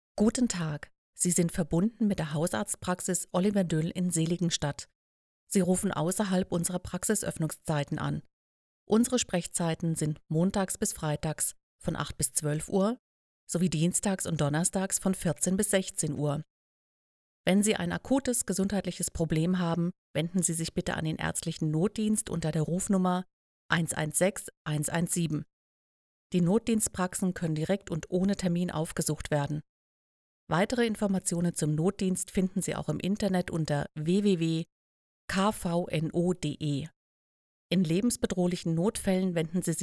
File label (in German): Anrufbeantworter Arztpraxis; Duell